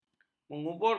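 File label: "menyuburkan", Malay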